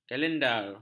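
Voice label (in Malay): "kalendar", Malay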